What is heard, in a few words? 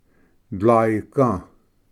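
"dlaiga", Cherokee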